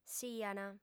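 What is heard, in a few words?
"siant", Sardinian, female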